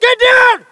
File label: spot; bf3